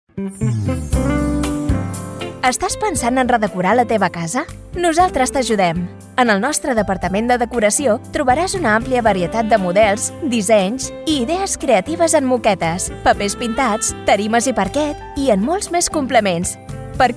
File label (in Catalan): DECORACION CATALAN; pinturas andalucia